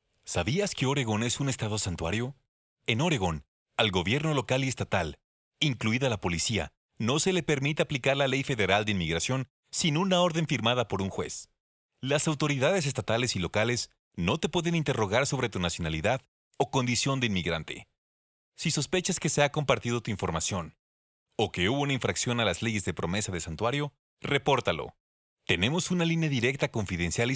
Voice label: Spanish